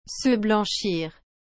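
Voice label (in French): "se blanchir", French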